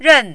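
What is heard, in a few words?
"ren", Mandarin, fourth tone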